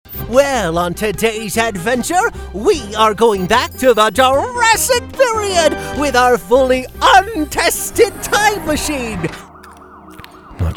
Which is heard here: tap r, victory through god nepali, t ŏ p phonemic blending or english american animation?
english american animation